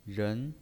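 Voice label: "ren", Mandarin Chinese pinyin voice, second tone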